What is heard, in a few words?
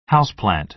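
"houseplant", American English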